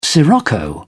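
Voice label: "sirocco", British English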